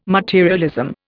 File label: "materialism", Indian